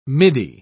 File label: "midi", pronounce